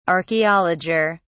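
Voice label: "archaeologer", British English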